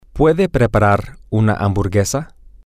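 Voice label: can she prepare a hamburger, Spanish, male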